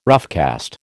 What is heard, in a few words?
"roughcast", American